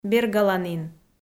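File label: "бергаланін", Komi, female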